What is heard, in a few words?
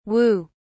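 "whoo", British English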